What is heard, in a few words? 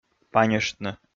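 "паньыштны", Komi, male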